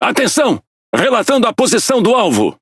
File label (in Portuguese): Speech Quick Commands Enemy Ship, PT BR; World Of Warships